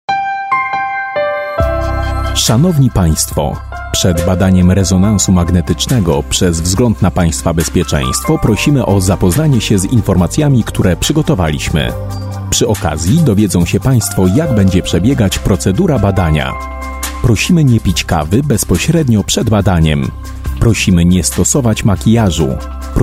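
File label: Polish Male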